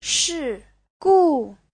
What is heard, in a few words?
"shi4gu4", Chinese; 事故; sự cố